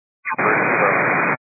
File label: 122 925MHz, scanner radio